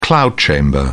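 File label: "cloud chamber", British English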